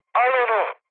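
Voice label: attack position, mood high, Turkish; War Thunder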